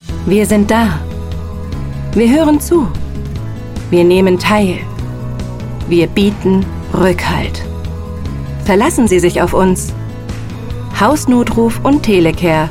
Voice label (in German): Werbung sorgsam